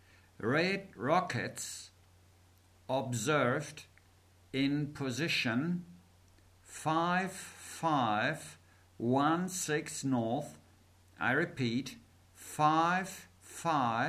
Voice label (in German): Seefunktext